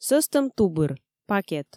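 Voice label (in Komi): сӧстӧм тубыр, пакет, Komi, female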